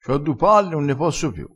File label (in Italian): "cio", crespinese